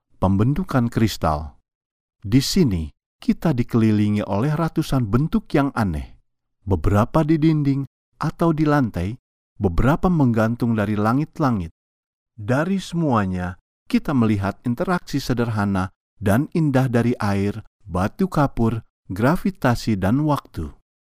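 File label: Indonesian, male, voice over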